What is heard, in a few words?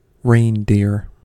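"reindeer", American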